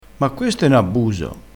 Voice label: "abbuso", Crespinese

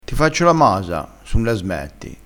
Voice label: "masa", crespinese, vocabolario dialettale

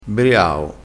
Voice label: "briao", crespinese

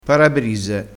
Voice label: "parabrise", crespinese

